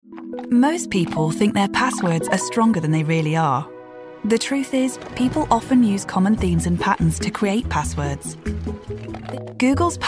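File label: English, British, female, corporate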